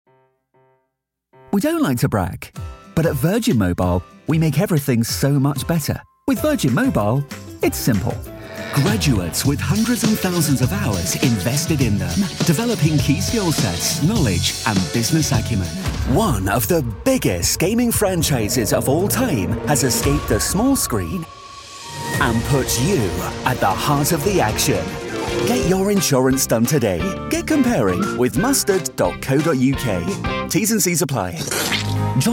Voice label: commercial

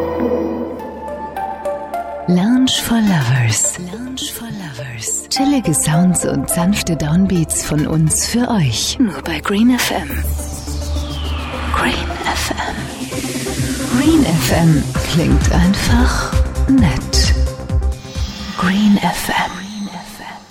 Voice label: radio

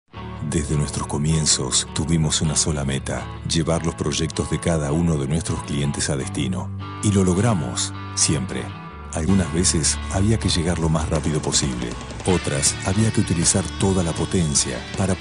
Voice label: latin spanish commercial

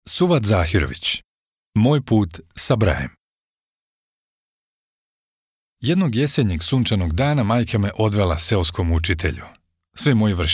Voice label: Bosnian voice over audiobook

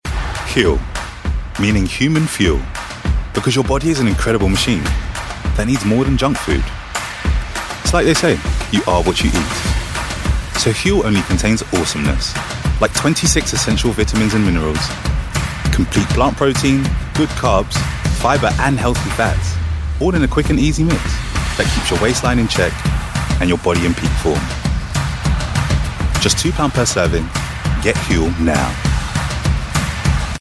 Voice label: commercial

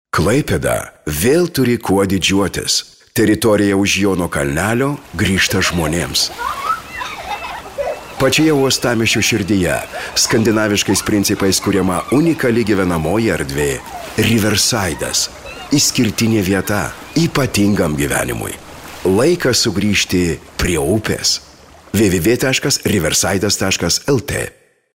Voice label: lithuanian

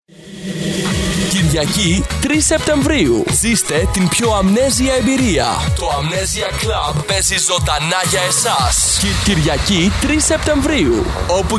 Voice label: greek male

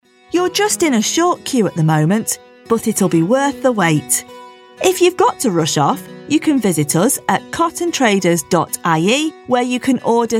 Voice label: telephone on hold

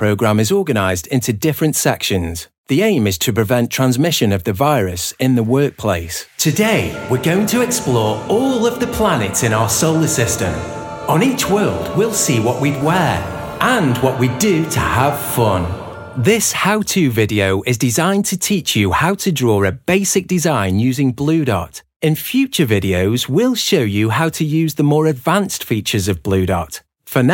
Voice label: e-learning

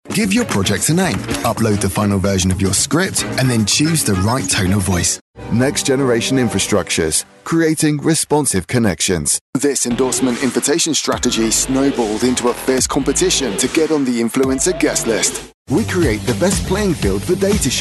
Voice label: corporate vo reel

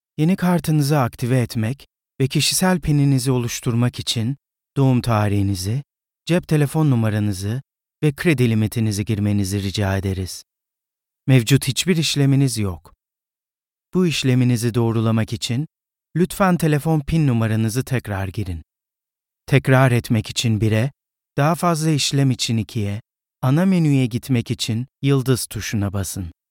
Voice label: turkish male telephone

